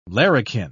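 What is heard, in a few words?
"larrikin", British English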